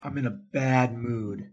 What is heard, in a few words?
"im in a bad mood", en